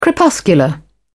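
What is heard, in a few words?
"crepuscular", British English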